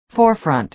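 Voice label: "forefront", American English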